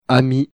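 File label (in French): "ami", French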